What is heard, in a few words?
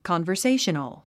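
"conversational", English word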